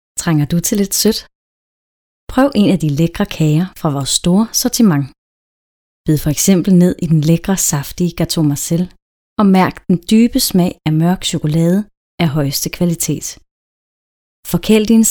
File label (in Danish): Reklame